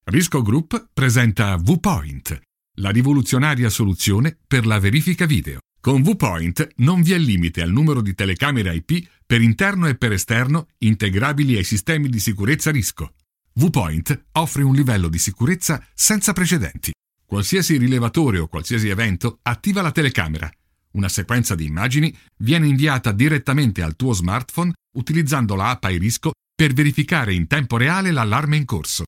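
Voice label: Italian, male, corporate; voice over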